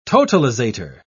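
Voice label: "totalisator", English, British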